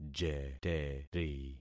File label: "jeteri", concat syllables; Affixing experiment